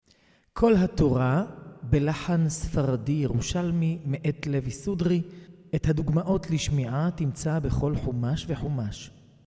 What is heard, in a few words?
recorded tanach five chumashim sefardi yerushalmi